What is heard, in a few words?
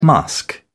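"musk", British English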